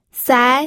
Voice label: "sai2", pinyin